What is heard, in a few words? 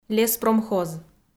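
"леспромхоз", Komi, female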